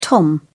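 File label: "tom", British English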